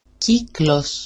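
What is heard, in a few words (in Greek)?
"kiklos", Greek; κύκλoς=circle; ['ciklos]; cycle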